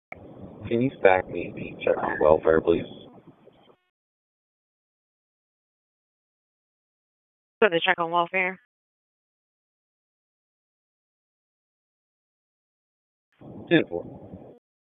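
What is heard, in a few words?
Raleigh Police Northeast District Dispatch; wake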